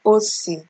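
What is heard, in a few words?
"OC", Nigerian Pidgin, female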